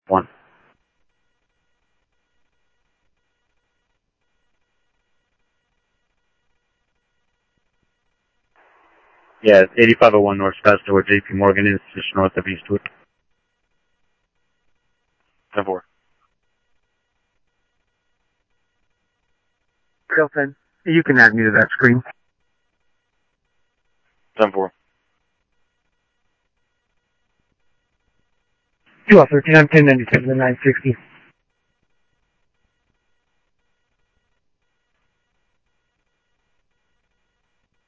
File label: Police, scanner audio; SCTSDLE PD - PD2 ScottsdalePolice; ThompsonPeakHigh